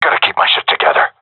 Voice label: scared; Counter Strike Model Pack; CS:GO; seal diver

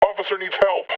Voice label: metropolice pain heavy; vo; Half Life Alyx

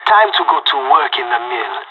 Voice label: radio lock n load; Counter Strike Model Pack; CS:GO

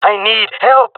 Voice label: request help, jungle male; vo; agents; Source Model Packs; Counter Strike Model Pack; CS2

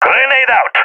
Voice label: throwing grenade, balkan; vo; agents

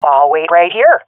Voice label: waiting here, swat fem; vo; Counter Strike Model Pack; CS:GO

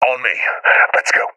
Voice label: request follow me, seal epic; Counter Strike Model Pack; CS:GO

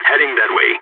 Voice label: on my way, balkan

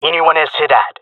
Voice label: heard sound, jungle male; vo; agents; Source Model Packs; Counter Strike Model Pack; CS2

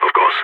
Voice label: agree, balkan; vo

